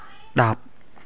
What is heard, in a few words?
khmer ten